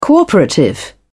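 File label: "cooperative", British English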